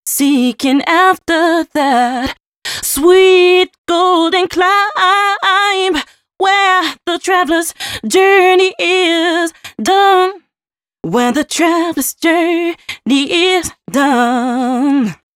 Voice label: female c# 140 bpm, hooklines, Dance Ultra Voice; Mutekki Media; Dance Ultra Voice VOL-1

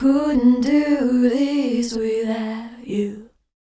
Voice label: Mixed Berry Jam Voice, 70s Analog Funk; Sonic Foundry; What it Is! 70s Analog Funk

